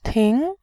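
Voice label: "ting", Mandarin Chinese, second tone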